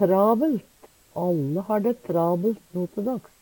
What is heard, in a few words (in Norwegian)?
trabelt, Numedalsmål; travelt; Adverb